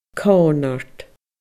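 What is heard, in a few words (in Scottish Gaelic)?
"comhnard", Scottish Gaelic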